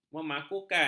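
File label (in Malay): "memakukan", Malay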